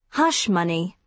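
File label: "hush money", British English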